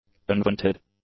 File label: "unvented", english; adjectives